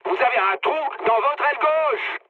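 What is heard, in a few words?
left wing, mood medium, French; War Thunder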